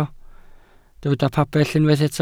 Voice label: "pabell", Welsh

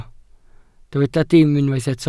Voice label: "dim", Welsh

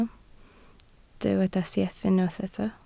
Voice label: "sieff", Welsh

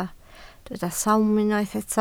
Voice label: "sawm", Welsh

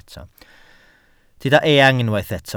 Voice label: "eang", welsh

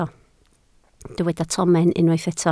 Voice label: "tomen", Welsh

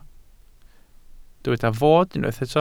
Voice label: "fod", Welsh

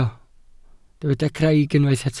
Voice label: "craig", Welsh

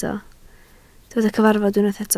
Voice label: "cyfarfod", Welsh

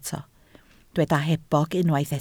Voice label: "hebog", Welsh

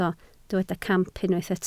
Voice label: "camp", Welsh

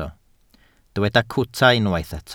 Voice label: "cwta", Welsh

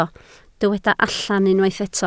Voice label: "allan", Welsh

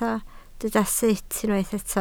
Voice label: "sut", Welsh

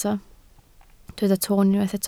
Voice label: "toon", Welsh

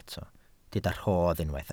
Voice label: "rhodd", welsh

